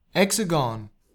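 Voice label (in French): "hexagone", French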